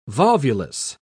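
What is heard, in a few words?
"volvulus", British English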